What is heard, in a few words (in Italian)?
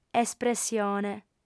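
"espressione", lingua sarda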